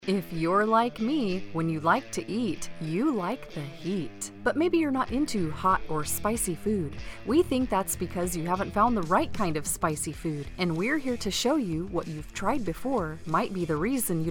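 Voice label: commercial, English US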